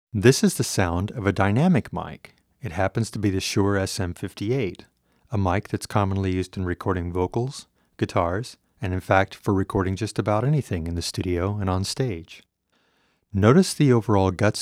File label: Voice SM58 RCA Ribbon M160 Ribbon LDC